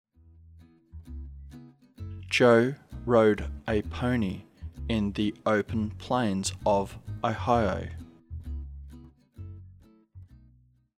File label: slow, diphthong əʊ as in home; practice activity sentences